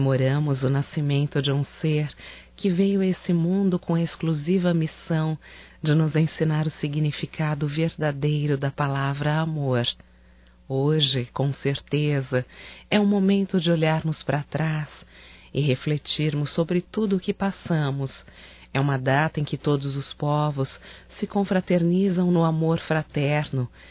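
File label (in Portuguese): NATAL FILHA, feminino; Telemensagens 2017; Natal & Ano Novo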